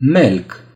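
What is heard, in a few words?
"snail", Romanian; gcompris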